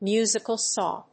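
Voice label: "musical saw", English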